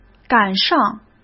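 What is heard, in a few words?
"赶上", Mandarin Chinese; hsk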